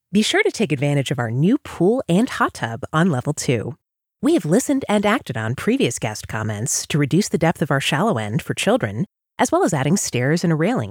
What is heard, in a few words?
Pool and hot tub hotel phones